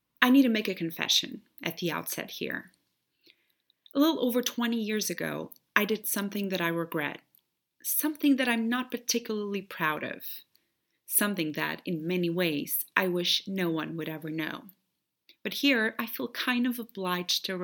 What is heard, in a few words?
US ENGLISH